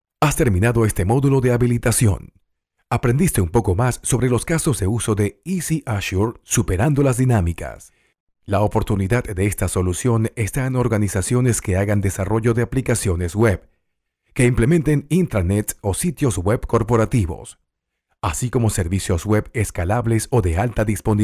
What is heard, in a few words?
E LEARNING